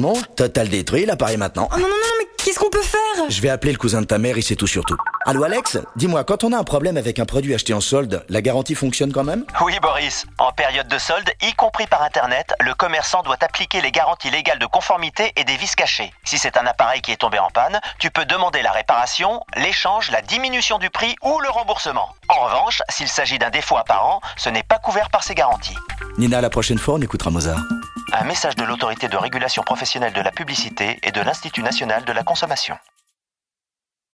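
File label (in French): spot, spots radio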